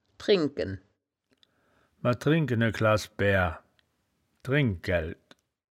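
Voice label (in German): "drengken", wittlicher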